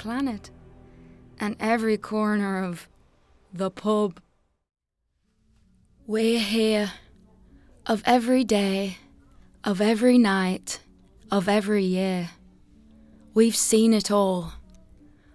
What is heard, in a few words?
irish female voice